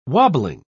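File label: "wobbling", English, British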